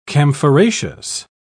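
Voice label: "camphoraceous", British English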